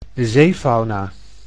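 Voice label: "zeefauna", Dutch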